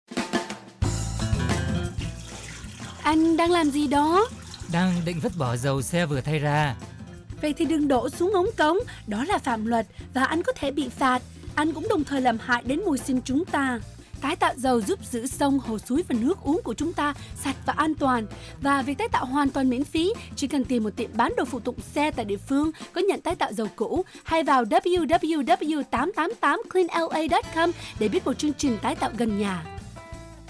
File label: Recycling Vietnamese